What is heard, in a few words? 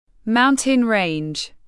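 "Mountain-Range", English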